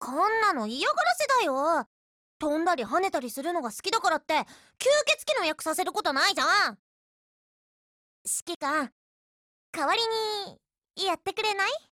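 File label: ALL HALLOWS, Japanese; MPK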